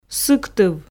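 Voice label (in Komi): "сыктыв", Komi, female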